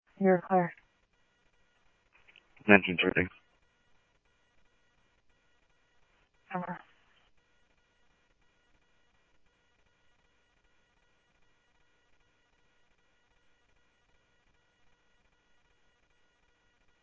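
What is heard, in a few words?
Simulcast police department, scanner audio trunk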